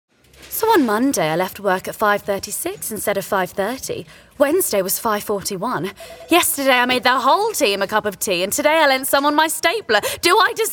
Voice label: advert

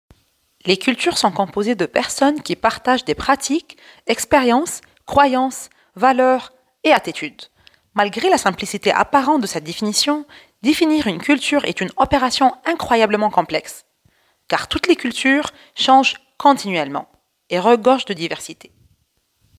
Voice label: Voices Kids help

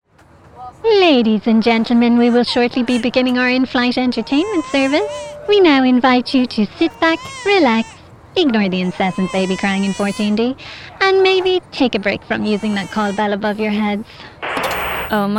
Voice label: Animation

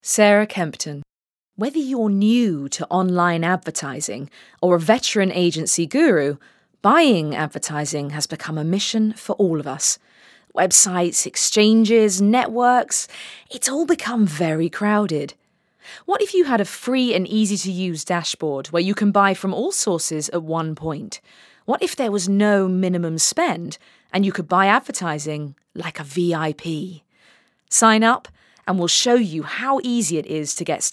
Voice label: Corporate Reel

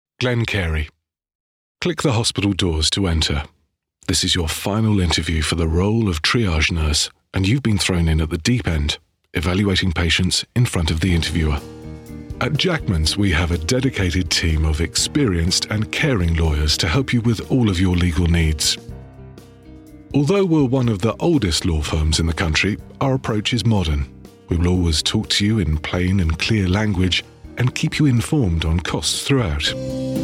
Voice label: Corporate VOICEREEL

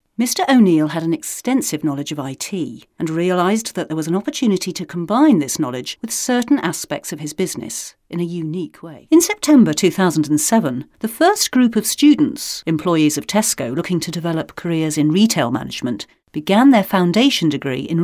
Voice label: CORPORATE NARRATIVE

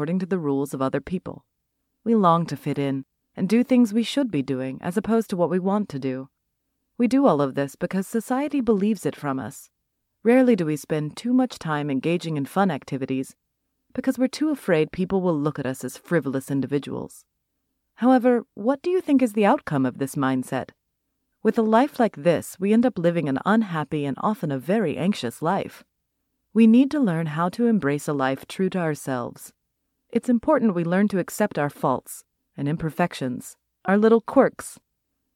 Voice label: Self Help Audiobook

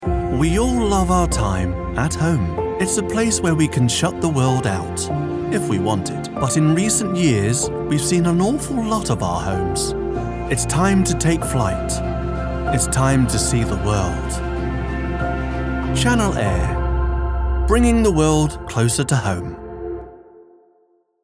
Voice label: Channel Air

